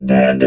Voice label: "dead", robot vox